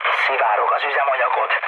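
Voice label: Hungarian tank damaged mood low, speech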